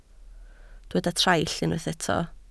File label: "traill", Welsh